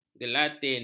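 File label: "gelatin", Malay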